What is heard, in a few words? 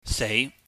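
"sei", Cantonese, mid rising tone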